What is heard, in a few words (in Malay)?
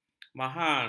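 "mahar", Malay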